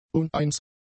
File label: "uneins", German; adjectives